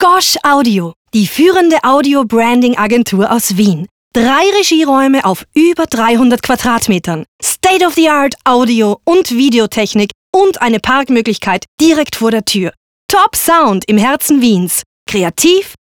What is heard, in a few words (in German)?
Werbung